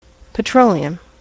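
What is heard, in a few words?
"petroleum", US English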